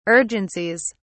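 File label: "urgencies", British English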